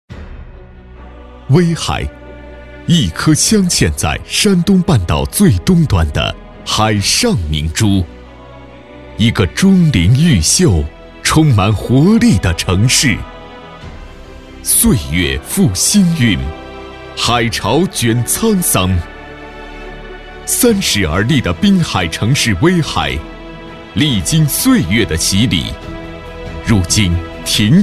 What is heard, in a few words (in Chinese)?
男 企业宣传 大气稳重; 光大银行威海分行; 磁性大气